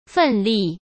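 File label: "奋力" Mandarin Chinese; hsk; chinese-flashcards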